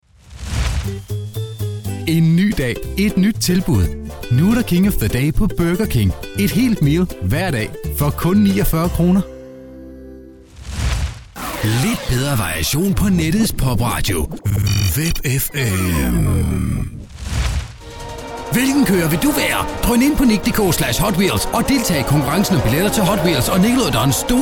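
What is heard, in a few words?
danish male commercial, voice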